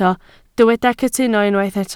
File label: "cytuno", Welsh